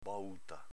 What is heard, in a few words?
"bauta", dialetto veneto